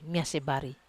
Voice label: "mas bari", Wamesa